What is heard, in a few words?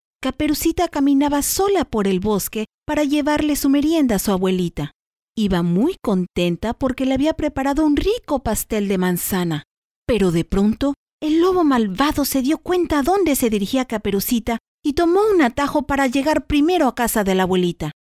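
Spanish Latin America female character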